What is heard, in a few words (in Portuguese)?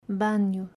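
"Banho", Portuguese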